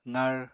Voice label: "qar", Apatani

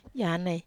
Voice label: "ana i", Wamesa

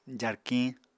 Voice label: "jarkiñ", Apatani

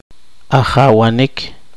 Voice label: poqomchi; reinar

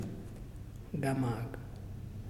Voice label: Person, Muyuw; Lae Unitech

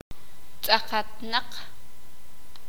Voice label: sakapulteko; entero

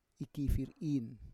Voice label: "ikifir in", Roon

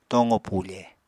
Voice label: "tooqo pufe", apatani

